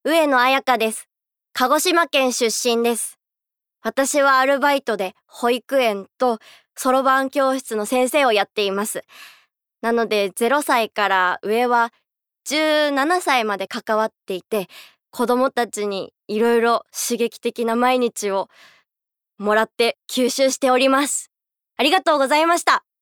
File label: Freetalk, female voice